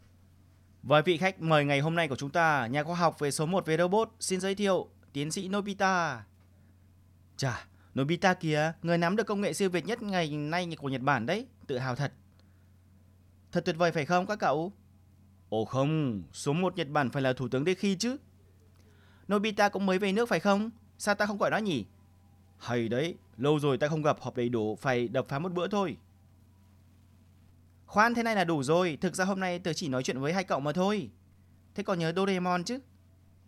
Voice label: anime

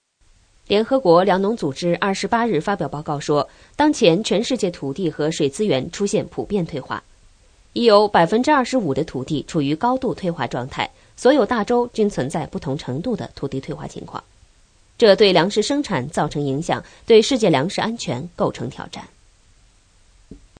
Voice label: news

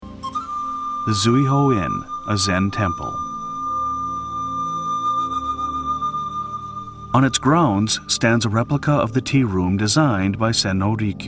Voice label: relaxed

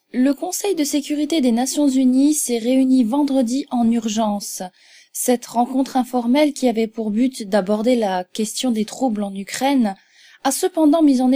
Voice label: news

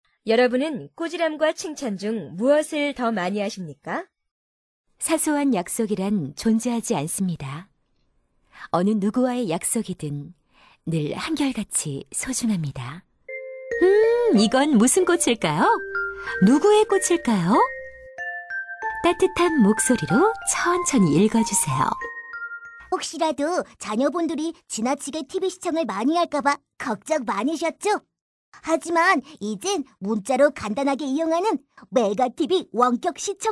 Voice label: korean f

